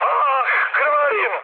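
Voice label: Serbian damaged pilot mood high, speech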